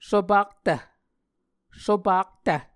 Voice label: "sopaktv", Muscogee